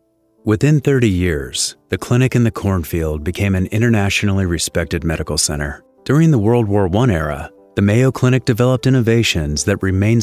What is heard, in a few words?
Medical Elearning; Mayo Clinic